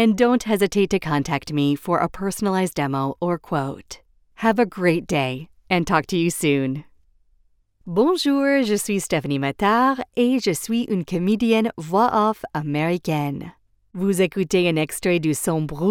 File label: Studio Sample English